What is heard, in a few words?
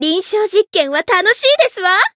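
variable chant, Japanese; pom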